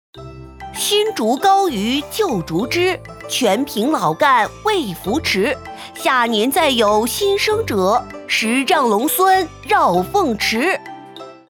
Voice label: 角色 正太 男童音色

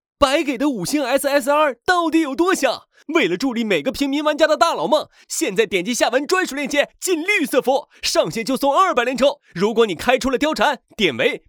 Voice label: 信息流 清亮 明快; 绿色服

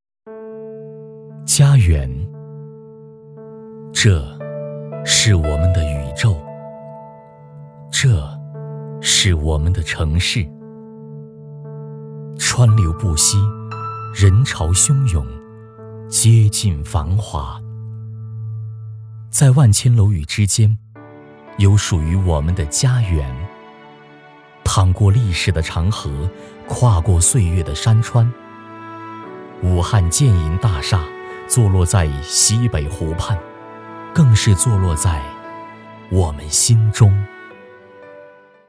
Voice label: 旁白 抒情; 家园

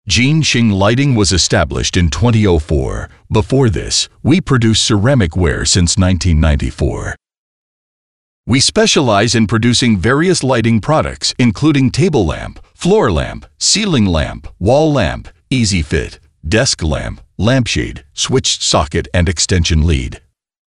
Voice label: 外籍 大气

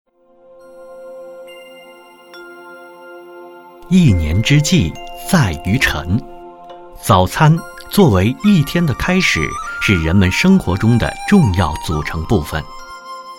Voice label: 纪录片; 寻味武汉

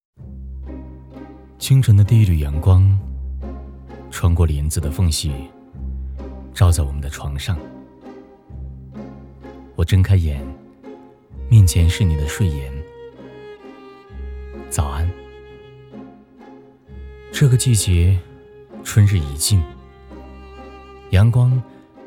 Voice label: 旁白; 王家卫《怦然心动》

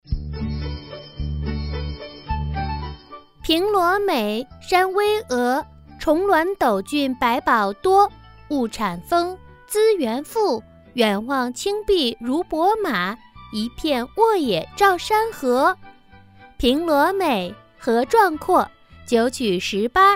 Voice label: 角色 童声

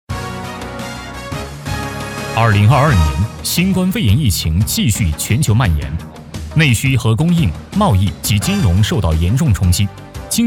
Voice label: 新闻

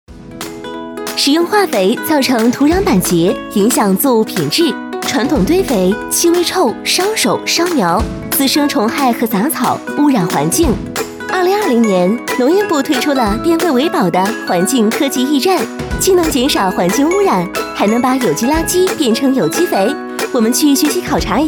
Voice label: MG 垃圾处理解说

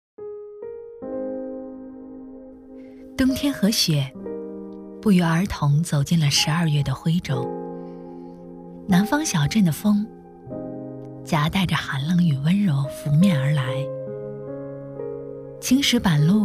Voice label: 旁白; 冬之约

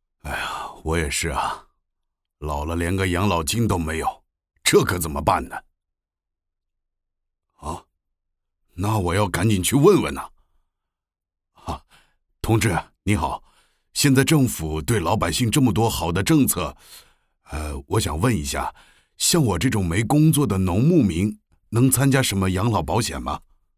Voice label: 角色 素人 老人

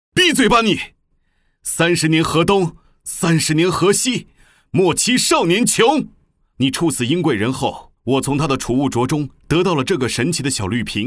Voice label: 角色 坚毅少年; 莫欺少年穷

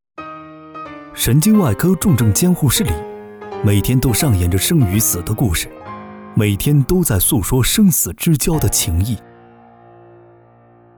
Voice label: 纪录片 成熟

